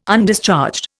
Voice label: "Undischarged", Indian